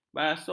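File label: "basuh", Malay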